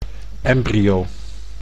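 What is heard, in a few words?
"embryo", Dutch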